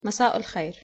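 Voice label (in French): bonsoir, Arabic pronunciation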